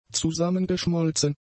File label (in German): "zusammengeschmolzen", German; adjectives